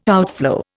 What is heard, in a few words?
"outflow", Indian